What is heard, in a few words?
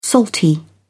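"salty", British English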